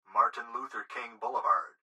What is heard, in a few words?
Gps Voice, Male; Technology; Recorded Voice; Martin Luther King Blvd; Blastwave